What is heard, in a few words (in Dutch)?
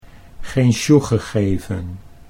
"geen sjoege gegeven", Dutch